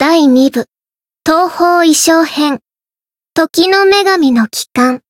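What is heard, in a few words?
Voice title, Japanese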